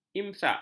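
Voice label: "imsak", Malay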